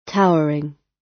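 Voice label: "towering", British English; Design and innovation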